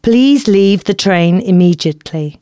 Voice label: announcements female